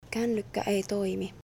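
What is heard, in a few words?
Finnish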